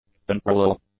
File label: "unparallel", english; adjectives